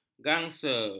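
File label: "gangsa", Malay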